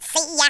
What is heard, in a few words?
"oh dear", wacky speech